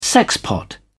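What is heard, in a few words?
"sexpot", British English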